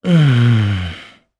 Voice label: Think, jp; Evan; kingsraid

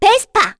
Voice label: Vox, kr; Cecilia; Vespa; kingsraid

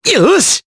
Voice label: Happy, jp; Mitra; kingsraid

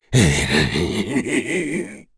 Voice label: Vox Sad kr; heroes; Dakaris; kingsraid

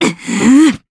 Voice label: Vox Casting, jp; Valance; kings raid

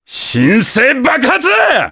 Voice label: Vox Skill jp; Baudouin; kingsraid

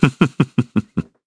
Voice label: Human Happy, kr; voices; heroes; Shakmeh; kingsraid

